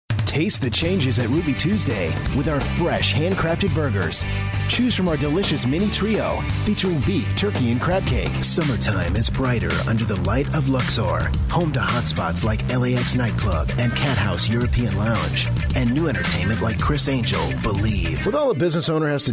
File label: Commercial Male